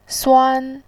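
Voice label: "suan", Mandarin Chinese, first tone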